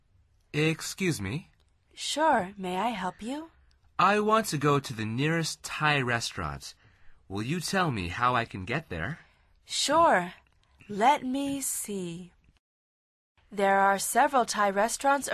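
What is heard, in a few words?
dialog, Conversación en Ingles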